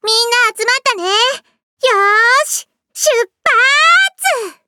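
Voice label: start, Japanese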